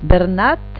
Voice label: "bernat", Occitan